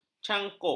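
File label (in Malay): "cangkuk", Malay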